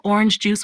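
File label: "Orangejuice", pronunciation; drinks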